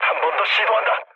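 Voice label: Korean bomb failure mood low; War Thunder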